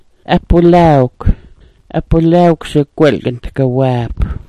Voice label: "apwilaawk", smalgyax